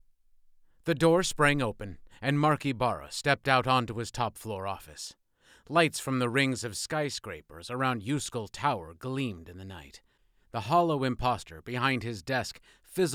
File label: Elderly Man, Female Child, 3rd person; audiobook narrator